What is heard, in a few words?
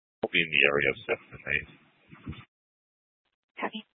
Police Dispatch; Police 1 Dispatch